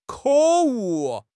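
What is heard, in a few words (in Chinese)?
"可恶", Chinese; HSK6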